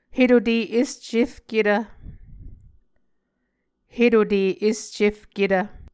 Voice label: "hetute escefketv", Muscogee

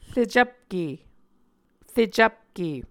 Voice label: "recvpke", Muscogee

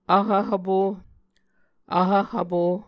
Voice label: "vhv hvpo", Muscogee

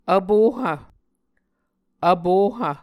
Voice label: "vpohv", muscogee

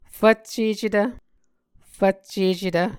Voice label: "fvccecetv", Muscogee; A Dictionary of Creek/Muskogee; SIL International

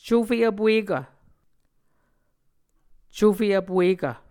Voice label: "cufe vpuekv", Muscogee

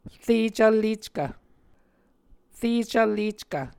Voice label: "re cvlleckv", muscogee

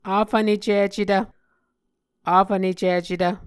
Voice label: "afvnecicetv", Muscogee

